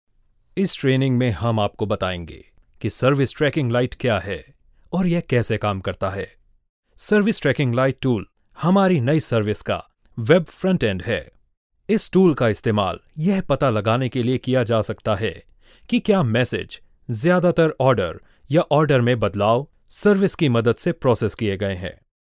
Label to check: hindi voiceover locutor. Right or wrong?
Right